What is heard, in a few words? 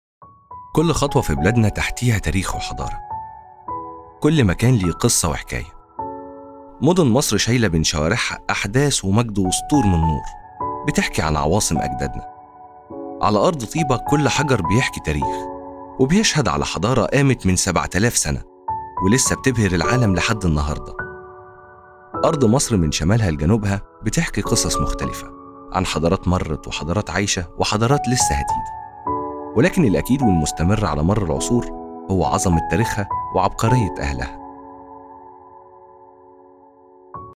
arabic narration